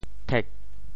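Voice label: "thek4", Teochew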